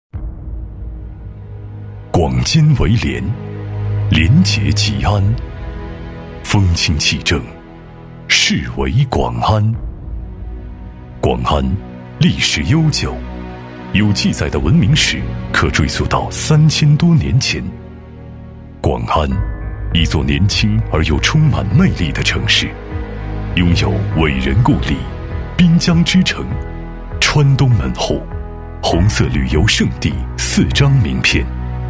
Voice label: 男 城市宣传 抒情; 广安; 磁性品质

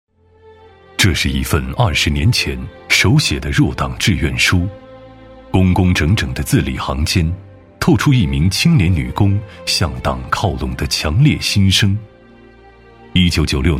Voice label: 男 人物专题 叙述旁白; 我要入党; 磁性品质; 质感磁性

